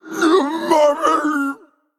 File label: Male Troll Undead Death